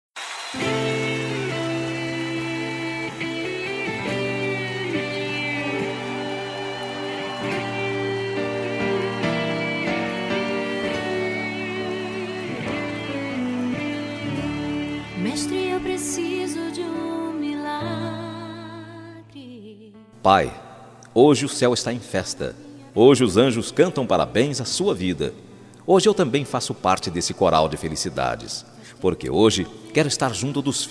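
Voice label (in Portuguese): Aniversário Pai Evangélico Masculino, telemensagem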